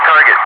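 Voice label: "target", English, Wingman; DCS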